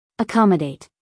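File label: "accommodate", American English female; everyone-can-use-english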